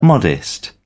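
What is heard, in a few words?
"modest", British English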